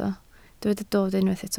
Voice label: "dod", Welsh